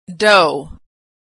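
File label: "dough", American English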